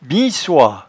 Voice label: "binsoie", patois jura

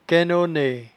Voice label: "cainnonnaie", patois jura

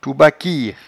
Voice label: "toubaquiere", patois jura

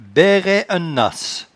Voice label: "bairraieennenace", patois jura

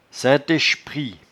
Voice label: "saintechprit", patois jura

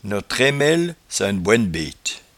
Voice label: "notraimellecat", patois jura

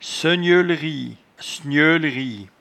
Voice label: "segneulerie", patois jura

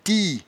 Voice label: "tie", patois jura

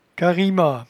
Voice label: "carima", patois jura

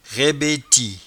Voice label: "raibeti", patois jura

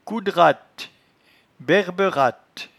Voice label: "coudrattebairberatte", patois jura

